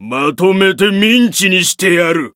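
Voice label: Voice variable chant, Japanese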